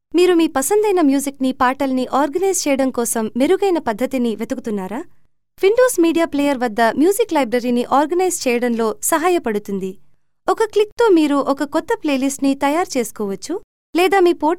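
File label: Telugu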